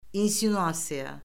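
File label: "insinuasiya", Azerbaijani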